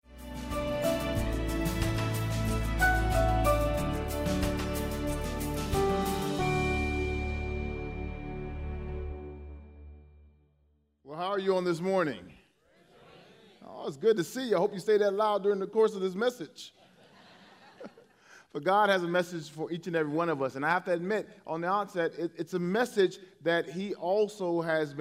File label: English Sermon